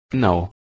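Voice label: "gneh3", Proto-Indo-European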